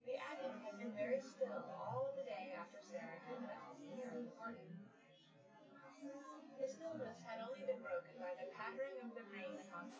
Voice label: female synthconv 5cm; SASSEC07

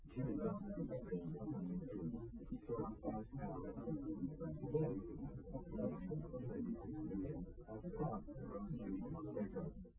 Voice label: male liverec

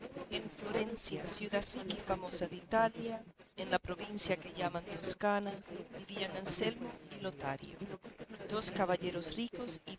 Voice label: female inst src; SiSEC08; SiSEC underdetermined

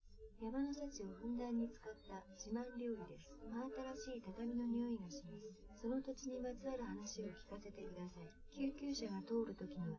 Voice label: female live rec; SiSEC10; underdetermined

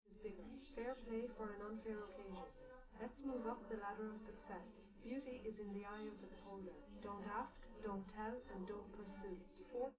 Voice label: test female live rec; SiSEC11; underdetermined

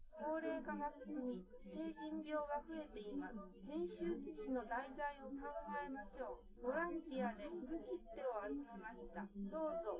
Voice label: female, live rec, 250ms, 1m

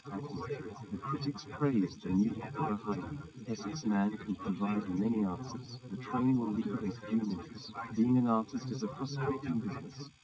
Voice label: male srec 130ms 50cm sim; SiSEC11; underdetermined

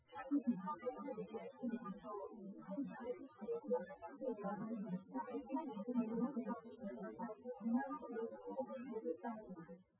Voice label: female4 liverec 250ms 5cm src

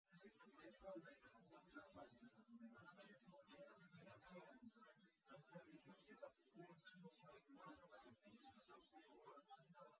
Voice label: male; SiSEC08; SiSEC underdetermined

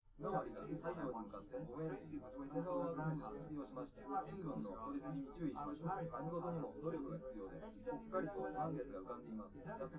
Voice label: male live rec

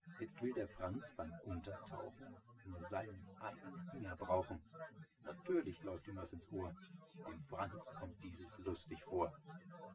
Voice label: male; SiSEC08; SiSEC underdetermined

